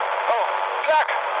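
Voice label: German damaged aa mood low, speech; War Thunder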